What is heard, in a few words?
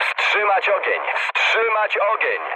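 player friendly fire, mood low, Polish speech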